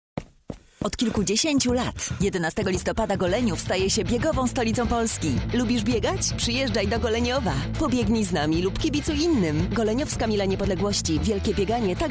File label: radio szczecin spot